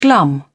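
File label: "glum", English, British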